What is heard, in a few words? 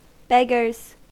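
"beggars", US English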